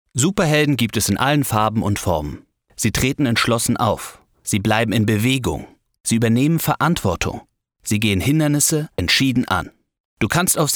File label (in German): Werbung Superhelden; LOFT VOICES